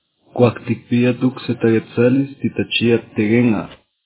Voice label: nawat